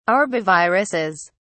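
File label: "arboviruses", British English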